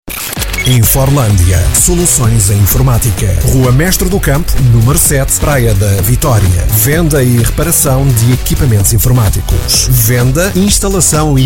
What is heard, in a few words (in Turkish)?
Portekizce Erkek Portekiz; portekizce seslendirme; erkek sesler